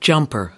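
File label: "jumper", American English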